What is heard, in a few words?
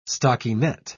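"stockinet", English, British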